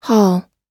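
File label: "hall", American English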